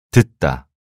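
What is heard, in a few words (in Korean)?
"듣다", Korean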